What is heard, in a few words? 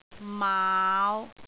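"maau5", Cantonese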